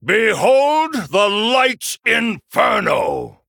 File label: Male Human Start